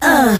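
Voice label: "uh", male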